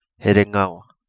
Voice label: Kapingamarangi; Herengua

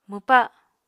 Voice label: "mwpa", Apatani

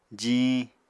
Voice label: "jiñ", Apatani

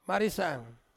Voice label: "marisang", Ansus; chili pepper

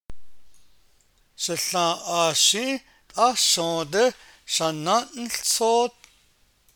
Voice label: navajo; Shił naa’aash shį́į́! T’áá shǫǫdí shaná’níłtsóód!; Oh, my cousin! Please give me (some of) the food back!; Request, affirmative; Navajo Imperatives

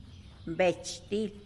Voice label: "betch til", tlacolula; yerbabuena

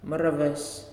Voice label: "Teacher", Nalik

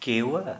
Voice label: "Chin", Santali

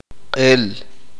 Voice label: uspanteko; podrido

